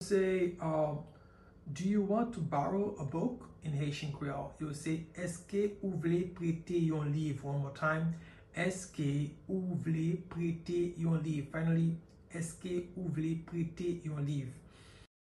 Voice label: Eske ou vle prete yon liv, Haitian Creole pronunciation; Do you want to borrow a book in Haitian Creole; by a Haitian